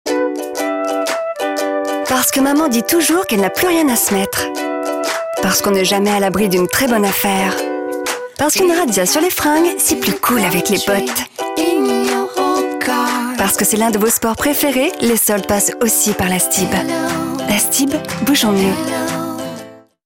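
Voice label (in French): spot radio; STIB TV SOLDES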